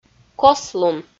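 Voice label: "кослун", Komi, female; kpv; audio dict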